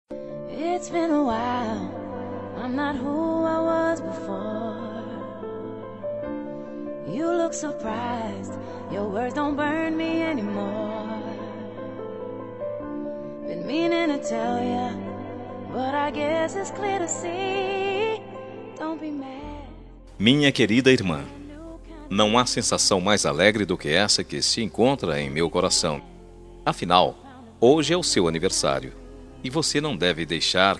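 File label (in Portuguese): ANIVERSARIO IRMA MASCULINO, telemensagem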